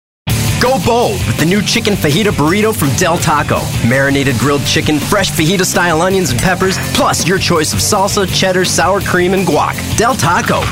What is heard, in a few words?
Commercial Demo